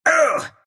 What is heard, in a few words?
pain, ru; Dota 2